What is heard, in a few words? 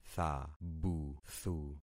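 "thabuthu", concatenated syllables; Affixing experiment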